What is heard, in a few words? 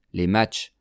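"les matches", French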